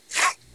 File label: Voice Acting, es